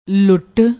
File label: "lutt", Punjabi